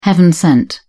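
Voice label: "heaven-sent", British English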